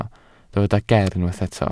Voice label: "ger" Welsh

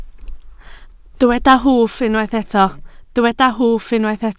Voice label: "hwff", Welsh

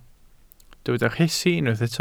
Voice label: "rhesi", Welsh

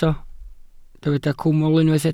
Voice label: "cwmwl" Welsh

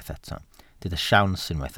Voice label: "siawns", Welsh

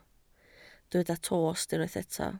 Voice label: "tost", Welsh

